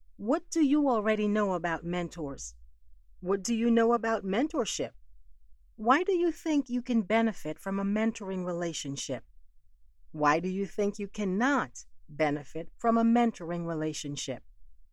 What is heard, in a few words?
ELEARNING